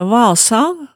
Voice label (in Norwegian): "vasa", hemsedalsmaalet, tale